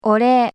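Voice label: "orei", Japanese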